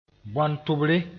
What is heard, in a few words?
"bõan-tʋbre", moore